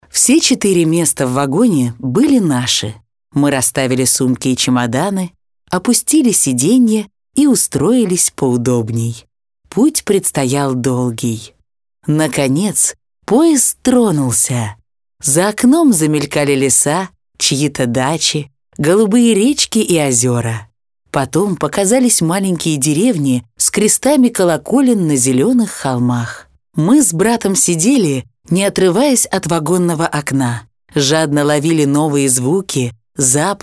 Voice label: RUS female, Casual